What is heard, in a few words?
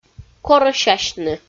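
"корӧсясьны" Komi, female; audio dict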